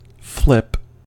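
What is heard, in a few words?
"flip", US English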